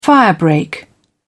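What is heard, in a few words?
"firebreak", British English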